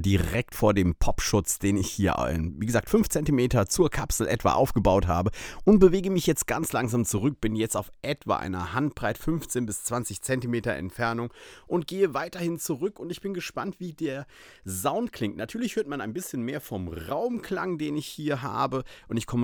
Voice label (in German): nahbesprechung; blue spark sl